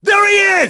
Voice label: flank, grunt; svencoop; st allies; nimble grunt